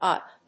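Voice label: "UTC", English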